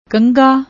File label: "kangha", Punjabi; ਕੰਘਾ